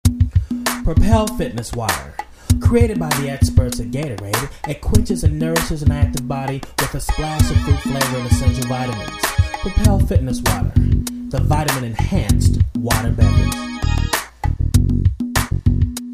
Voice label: Voice Over